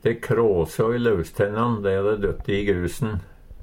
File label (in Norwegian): krasa, Numedalsmål; Verb